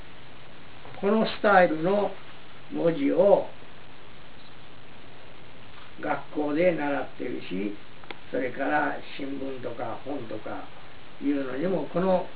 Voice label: calligraphy lecture; Asia; Japan; Calligrapher